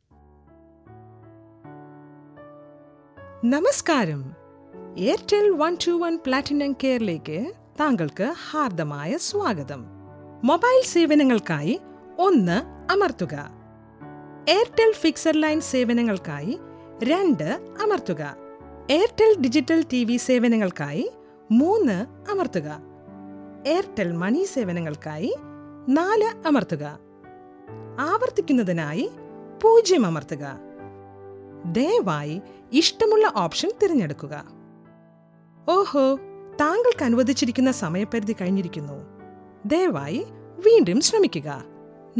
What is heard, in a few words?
ivr malayalam